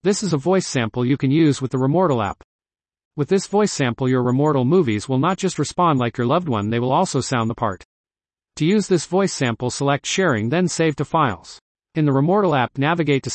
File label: us male